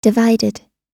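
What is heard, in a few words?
"divided", American English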